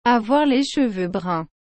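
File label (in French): "avoir les cheveux bruns", French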